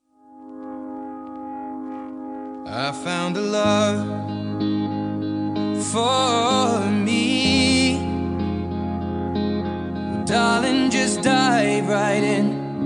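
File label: male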